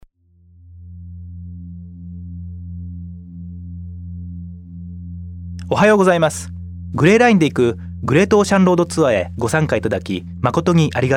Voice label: Japanese; Grayline Melbourne

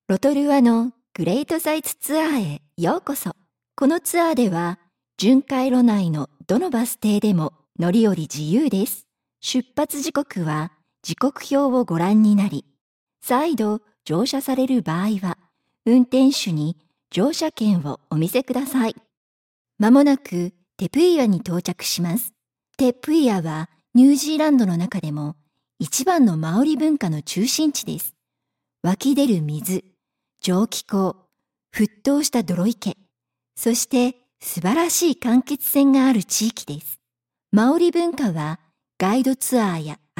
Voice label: Japanese; Te Puia

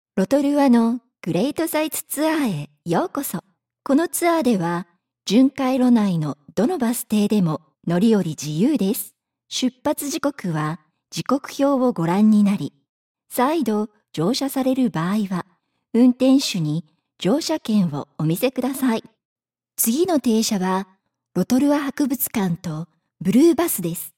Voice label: Lake Rotorua Japanese

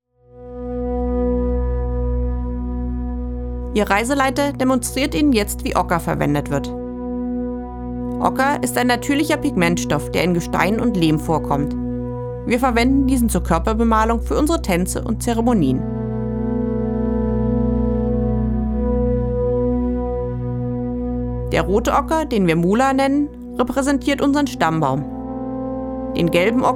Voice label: German; Mossman Gorge

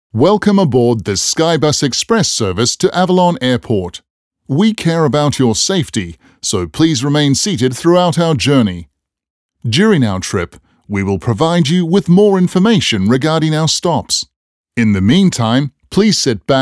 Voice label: english; Skybus Avalon

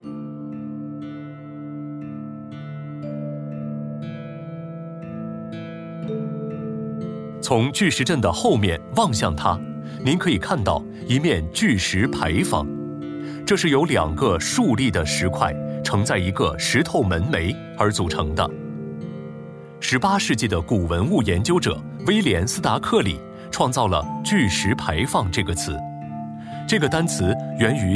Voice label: Mandarin; Stonehenge

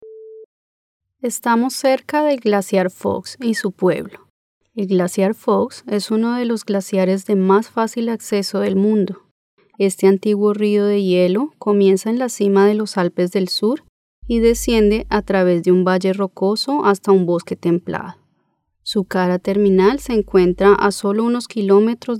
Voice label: spanish; ScenicNZ

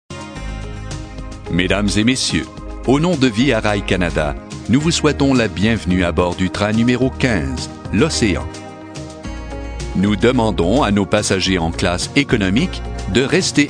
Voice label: french; depart halifax

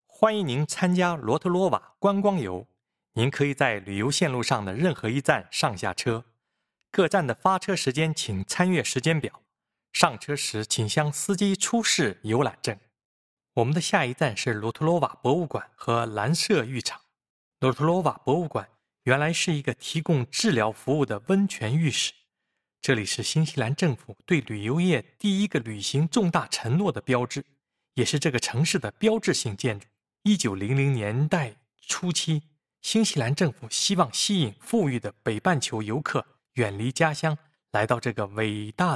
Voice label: Lake Rotorua Mandarin

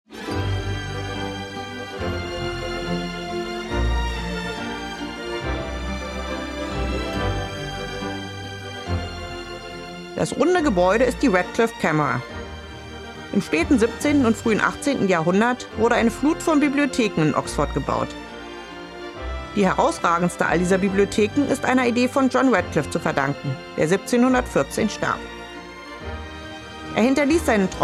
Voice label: German; Stonehenge Inner Circle Access

